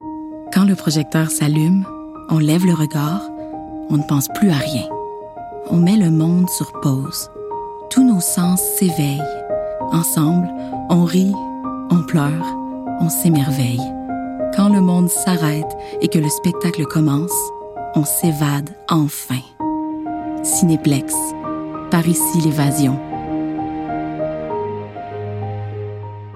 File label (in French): Publicité; Cineplex